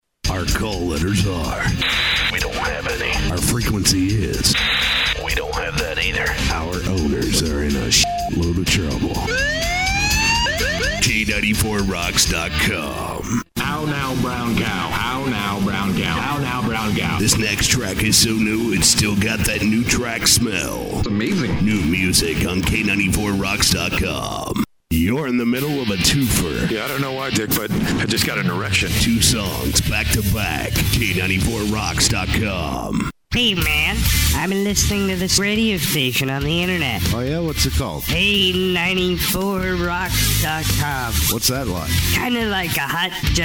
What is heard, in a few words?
sweeper